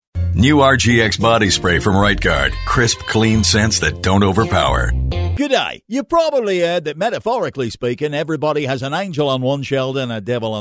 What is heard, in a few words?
english american commercial